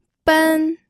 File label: "ben1", pinyin